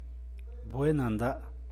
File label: "bue'e nandad", San Mateo Macuilxochitl Zapotec; verano